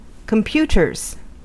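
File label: "computers", American English; pronunciations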